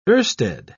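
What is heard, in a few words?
"oersted", English, British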